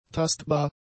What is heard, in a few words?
"tastbar", German; adjectives